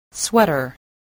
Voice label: "sweater", English